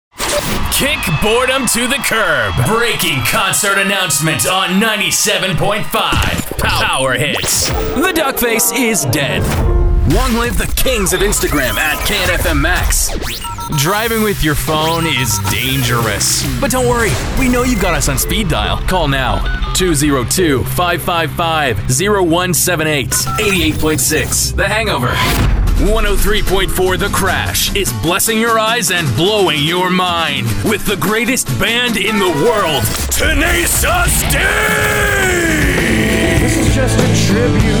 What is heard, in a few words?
Rock Radio Imaging